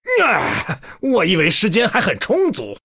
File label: Male Human Time; zh